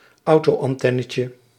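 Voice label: "autoantennetje", Dutch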